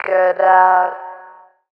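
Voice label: vocal female, Dance Vocals, spoken words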